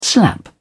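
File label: "slap", British English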